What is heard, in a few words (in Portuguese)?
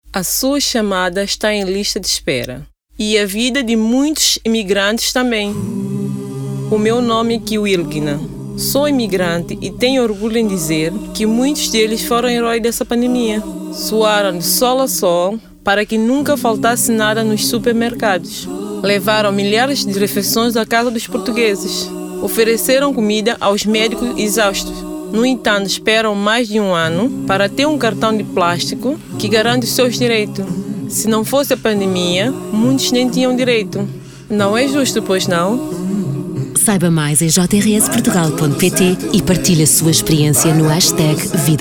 telefone; Mensagem